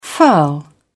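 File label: "furl", British English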